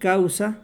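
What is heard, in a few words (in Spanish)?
"causa", Mexico; gleitlaute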